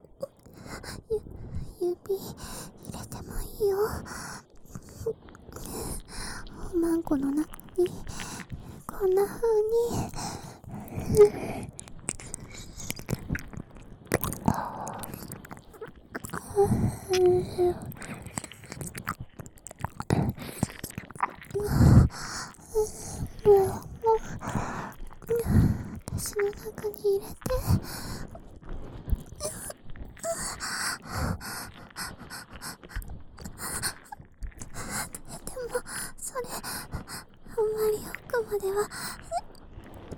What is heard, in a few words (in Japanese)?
ねっとり手マン+手コキ+奥まで耳舐め, ASMR